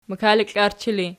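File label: "makaliklarchi" ergative, Archi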